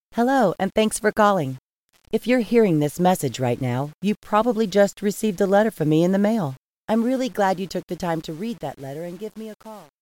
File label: voicemail script female